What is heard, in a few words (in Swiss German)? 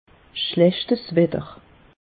"schlechts wetter", alsace; meteo